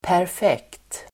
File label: "perfekt", Swedish